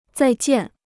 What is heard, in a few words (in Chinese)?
"再见", Chinese